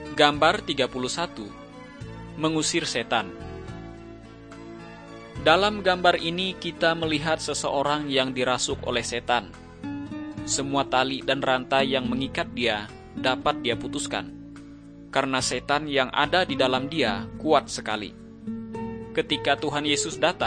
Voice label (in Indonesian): kabar baik good news bahasa indonesia